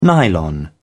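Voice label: "nylon", English, British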